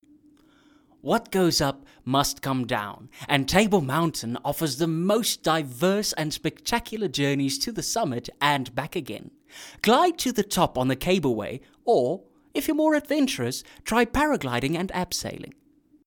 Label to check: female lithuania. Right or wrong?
Wrong